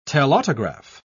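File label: "telautograph", British English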